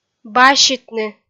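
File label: "баситны", Komi-Zyrian, female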